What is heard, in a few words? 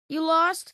lost male